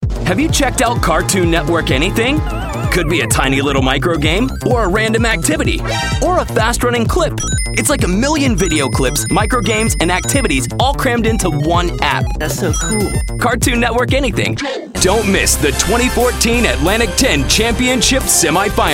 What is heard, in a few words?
promo men